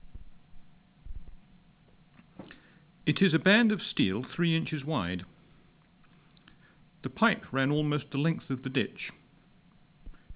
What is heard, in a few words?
british, open speech; uk